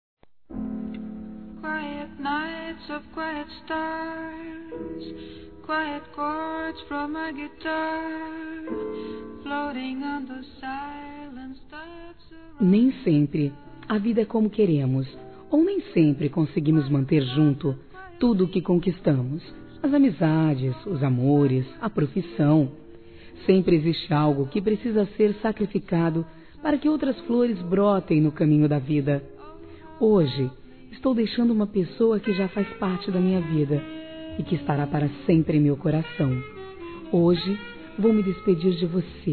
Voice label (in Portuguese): DESPEDIDA GERAL, fem; Telemensagens; Despedida Boas Vindas; Telemensagens 2017